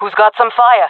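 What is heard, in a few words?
request fire, female voice; player vo jungle fem; Counter Strike Model Pack; CS:GO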